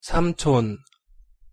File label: "삼촌", Korean; pronunciation